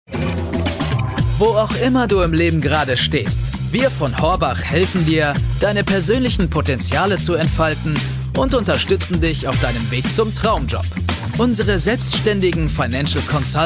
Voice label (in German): werbung podcast; horbach; lets schnack